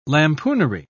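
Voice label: "lampoonery", British English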